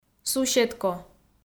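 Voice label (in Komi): "суседко", Komi, female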